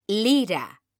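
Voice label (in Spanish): "LIRA", female; mekanta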